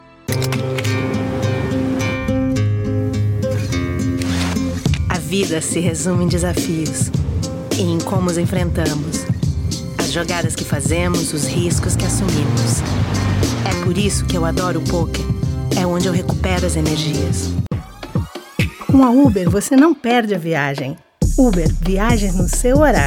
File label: Commercials Brazilian Portuguese Mix